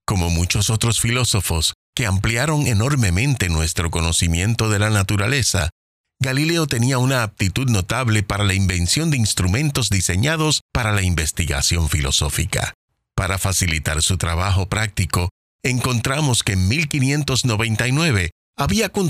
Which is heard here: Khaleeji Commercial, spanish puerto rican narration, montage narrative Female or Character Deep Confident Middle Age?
spanish puerto rican narration